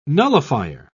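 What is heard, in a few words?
"nullifier", British English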